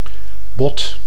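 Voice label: "bot", Dutch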